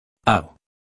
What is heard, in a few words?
Phoneme Male; Commonscript; Lynguistykon